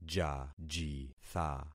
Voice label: "jajitha", concat syllables; Affixing experiment

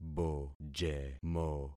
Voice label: "bojemo", concat syllables; Affixing experiment

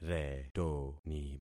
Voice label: "retoni", concat syllables; Affixing experiment

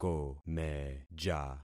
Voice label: "komeja", concat syllables; Affixing experiment

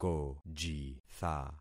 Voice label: "kojitha", concat syllables; Affixing experiment

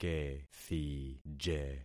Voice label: "kethije", concat syllables; Affixing experiment

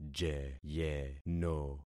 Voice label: "jeyeno", concat syllables; Affixing experiment

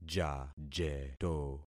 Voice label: "jajeto", concat syllables; Affixing experiment

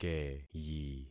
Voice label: "keyi", concat syllables; Affixing experiment

